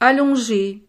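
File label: "allonge", French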